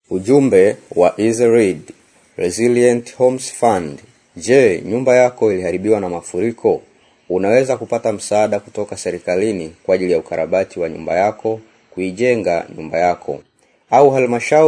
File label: swahili